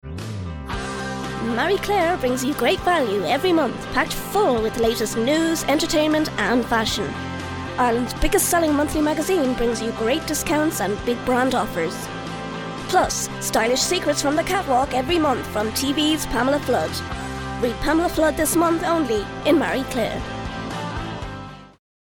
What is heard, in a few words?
Ad; Marie Claire